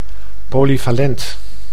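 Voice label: "polyvalent", Dutch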